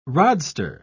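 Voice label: "rodster", British English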